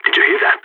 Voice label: heard noise, balkan; vo